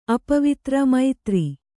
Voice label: "ಅಪವಿತ್ರ ಮೈತ್ರಿ", kannada

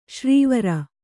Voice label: "ಶಾರ್ವರಿ", Kannada

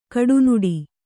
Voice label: "ಕಡುನುಡಿ", Kannada

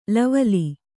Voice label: "ಲವಲಿ", Kannada

